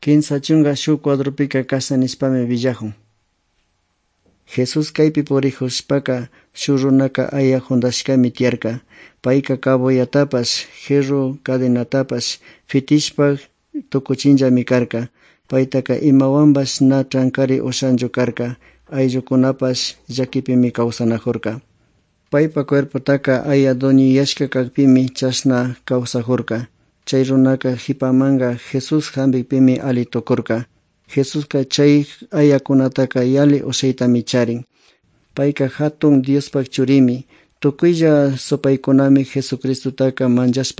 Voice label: good news quichua otovalo